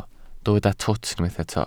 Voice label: "twt", Welsh